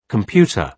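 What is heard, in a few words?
"computer", British English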